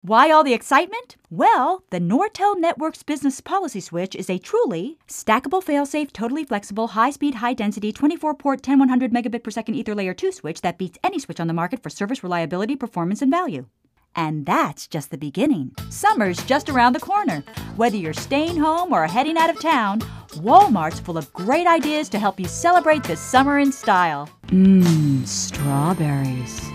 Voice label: Commercial VO